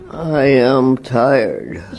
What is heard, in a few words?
old lady tired